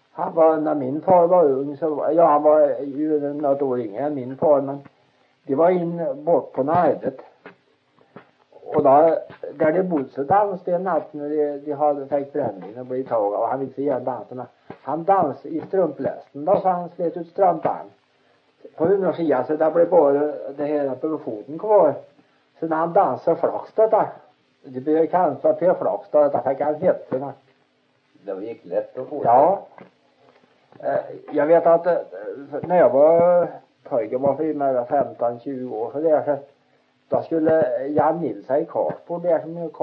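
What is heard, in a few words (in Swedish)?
Sunne Värmland, dialekter; Folkminnen; Svenska sagor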